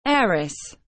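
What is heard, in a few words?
"Heiress", English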